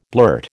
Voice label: "blurt", American

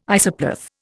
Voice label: "isopleth", Indian

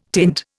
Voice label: "tint", Indian

